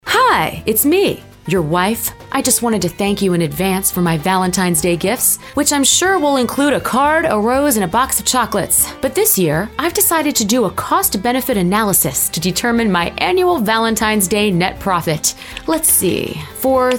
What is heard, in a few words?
COMEDIC PARODY